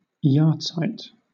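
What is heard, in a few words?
"yahrzeit", British English; pronunciations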